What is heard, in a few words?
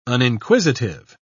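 "uninquisitive", British English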